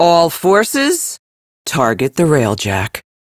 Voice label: Captain Female, combat start, en; Warframe; Railjack; Crp Captain

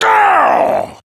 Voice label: Angry; Warframe; Tyl Regor Boss; Efforts

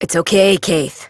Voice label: Horse Calm Adult; Duviri

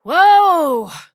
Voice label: Horse Stop Walk Adult; Duviri

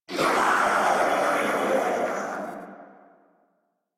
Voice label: Death Sniper, en

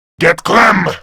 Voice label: Player Spotted, Male

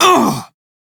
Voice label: Pain, Male; Warframe; Railjack; Red Veil

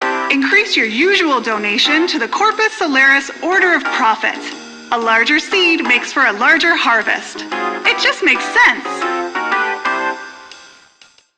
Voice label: PA System, en; Warframe; Solaris Venus

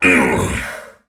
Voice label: Pain Heavy; Warframe; Dax Heavy; Battle Barks

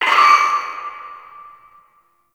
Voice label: Announcement Start; Lustratus; Interrogation

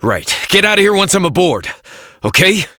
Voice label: Adult Op, en; New War

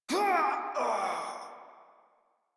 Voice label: Death Modular Corpus Male; Dialog; Barks; Battle; Warframe

